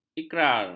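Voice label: "ikrar", Malay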